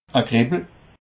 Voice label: "kreppel", Alsace

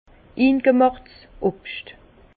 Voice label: "ingemachts", Bas Rhin; fruits confits; vin

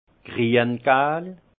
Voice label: "grien gal", alsace; jaune vert; vin

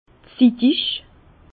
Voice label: "zittig", Bas Rhin; vin; maturité

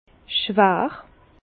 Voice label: "schwar", Bas Rhin; vin; lourd

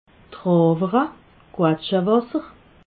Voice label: "trawere", alsace

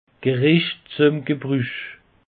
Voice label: "gebruch", alsace; peche

